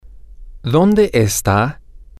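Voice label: Spanish, male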